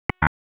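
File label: Raleigh Police Southeast District Dispatch, p25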